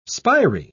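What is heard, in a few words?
"spiry", British English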